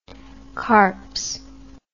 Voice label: "carps", English